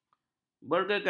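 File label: "bergegas", Malay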